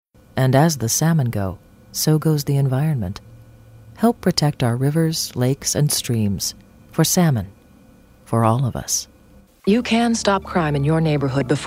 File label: authoritative